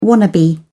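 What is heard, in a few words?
"wannabe", English, British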